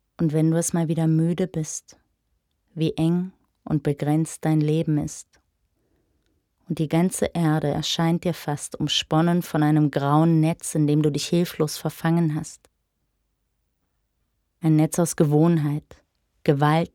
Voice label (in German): audio prosa